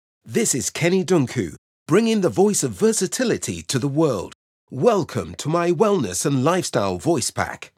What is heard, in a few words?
Wellness and Lifeststyle British Accent